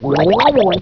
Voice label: submarine annoyed; ships; war2